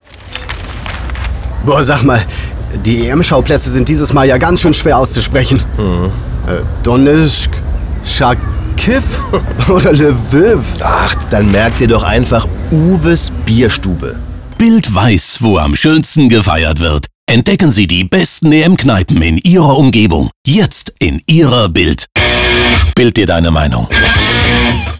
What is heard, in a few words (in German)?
Spot; bild